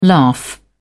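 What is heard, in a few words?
"laugh", British English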